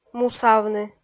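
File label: "мусавны", Komi, female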